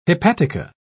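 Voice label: "hepatica", British English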